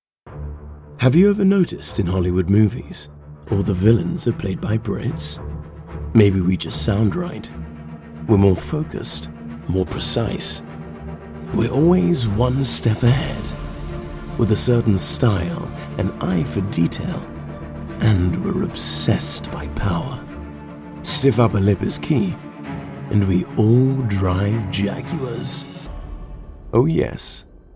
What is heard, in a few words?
AD; Jaguar